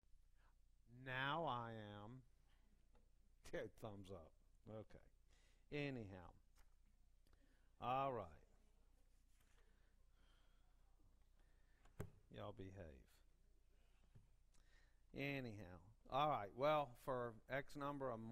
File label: Preaching